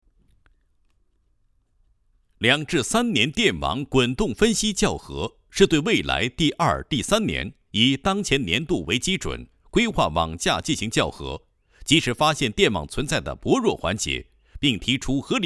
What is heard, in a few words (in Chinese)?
男 国企解说 配音; 重庆电网年滚动规划校核管理配音